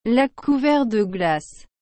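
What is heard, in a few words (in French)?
"lac couvert de glace", French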